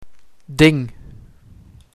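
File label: "Ding", Dutch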